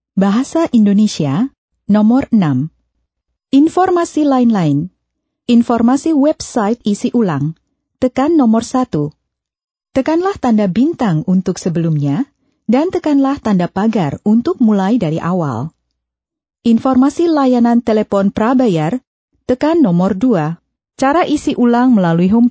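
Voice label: Indonesian, female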